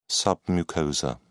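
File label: "submucosa", British English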